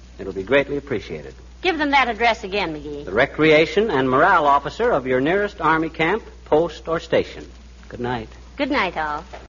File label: commercials, send games and reading material; fibber mcgee and molly